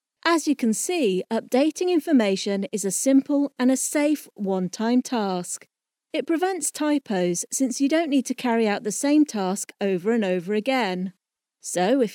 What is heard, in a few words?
Narration